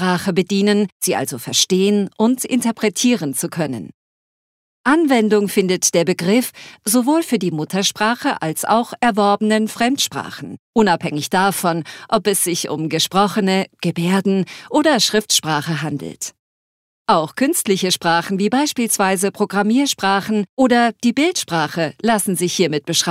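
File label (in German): Kommentar Eloquenz Freundlich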